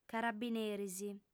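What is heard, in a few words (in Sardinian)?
"carabineris", female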